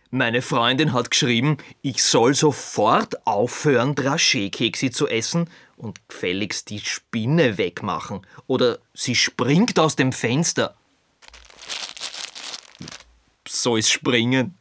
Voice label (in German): werbung